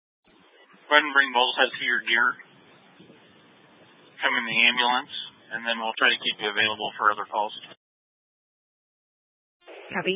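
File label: Incident Tac 2, Scanner audio recording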